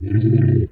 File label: Zombie